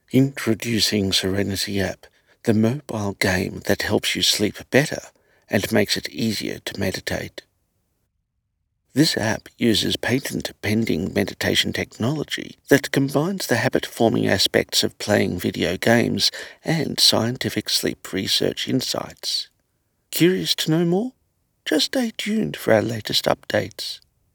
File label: Serenity App explainer